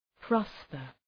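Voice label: "prosper", English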